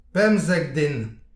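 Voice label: "pemzek den", Breton; petit precis de prononciation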